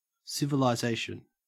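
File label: "civilisation", English, Australian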